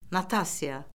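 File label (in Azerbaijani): "notasiya", Azerbaijani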